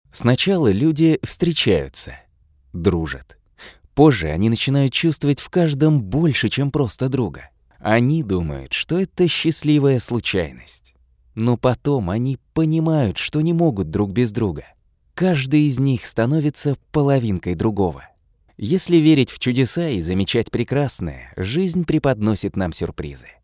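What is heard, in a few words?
lyrical, Russian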